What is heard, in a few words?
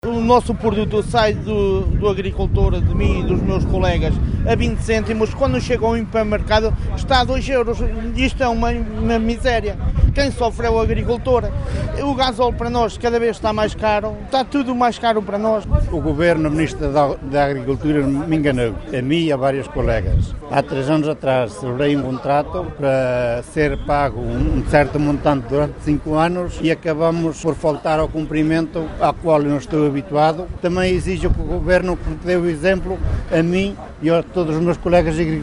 vox pop; agricultores